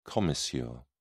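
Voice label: "commissure", English, British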